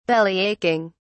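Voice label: "bellyaching", British English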